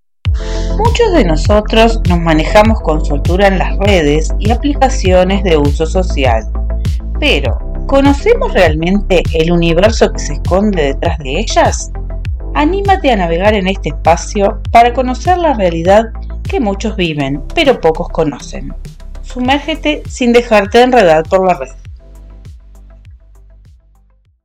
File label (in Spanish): podcast